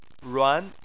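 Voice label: "ruan", Chinese, tone 2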